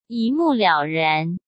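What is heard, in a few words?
"一目了然", Mandarin Chinese; chinese flashcards; hsk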